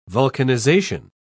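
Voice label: "vulcanization", British English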